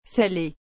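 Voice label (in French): "saler", French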